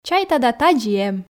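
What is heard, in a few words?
чайта да тадзи и эм, Komi, female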